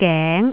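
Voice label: "geng2", Cantonese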